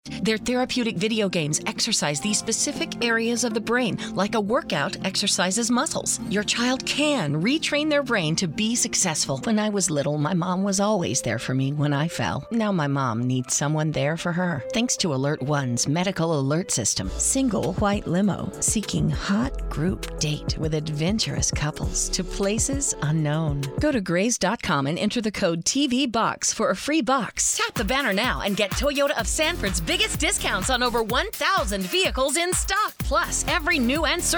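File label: Commercial Female, Mature, Enthusiastic, Relateable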